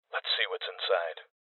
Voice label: Voices OpenSafe Male; Rebel Cops